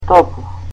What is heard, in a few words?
"warm", Macedonian